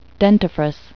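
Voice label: "dentifrice", English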